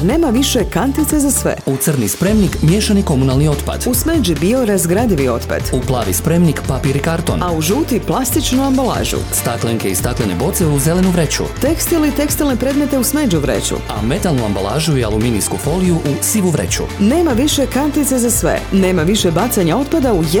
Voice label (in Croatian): promo radio spot; varazdin